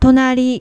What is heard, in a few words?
"tonari", Japanese